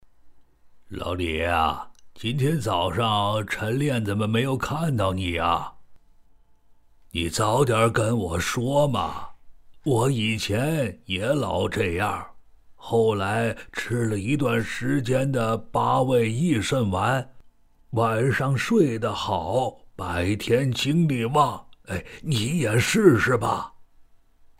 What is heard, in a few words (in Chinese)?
角色配音 老人 男 对话